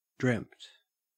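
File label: "dreamt", Australian English; pronunciations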